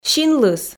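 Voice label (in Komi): "синлыс", Komi, female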